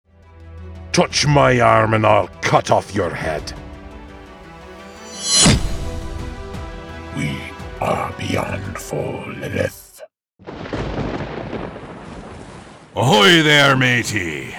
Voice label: Character Range